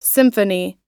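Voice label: "symphony", English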